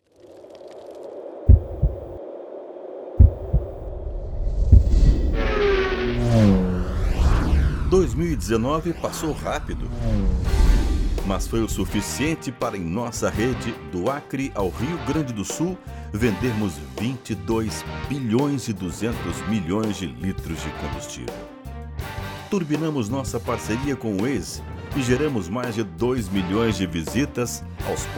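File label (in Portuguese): Voz Madura, Masculino, Português - Brasileiro; Voz Padrão - Grave; VIDEO BR / PETROBRAS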